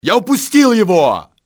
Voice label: lost him, russian soldier; XBOX

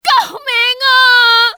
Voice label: help, civ fmale; XBOX

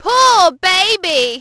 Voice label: baby, Brit; Splashdown; XBOX

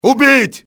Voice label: "kill", russian soldier; XBOX

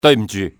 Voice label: excuse me, male; XBOX; civ

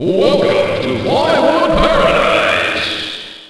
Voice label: announcer; Tony Hawk's Project 8; XBOX; human

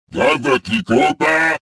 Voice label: suspicious; XBOX

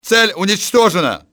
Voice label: target eliminate, russian soldier; XBOX

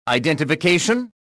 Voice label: suspicious; XBOX

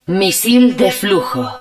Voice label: flux missile, Spanish

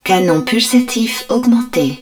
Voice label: hud pulse cannon augmented, french

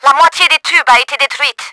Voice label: HALF TUBE, french; Battle Engine Aquila; XBOX

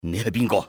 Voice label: who are you, enemy cantonese male; XBOX

